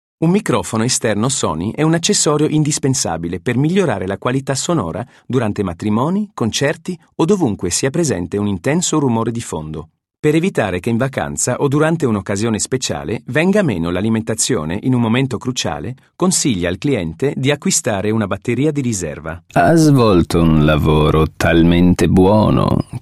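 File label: Italian General; GoLocalise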